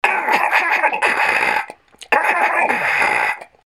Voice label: talk fx